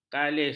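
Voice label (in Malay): "kalis", Malay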